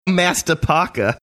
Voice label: boston accent; master parker